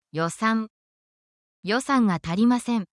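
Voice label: Japanese word, essential Japanese phrases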